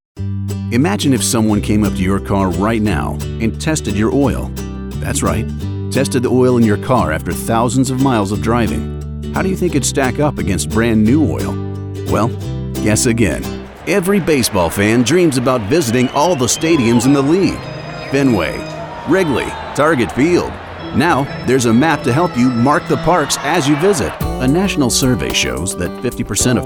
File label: commercial